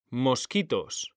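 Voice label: "mosquitos", Spanish, male; mekanta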